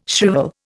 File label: "shrivel", Indian